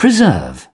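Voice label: "preserve", British English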